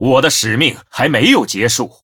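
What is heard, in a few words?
Male, Chinese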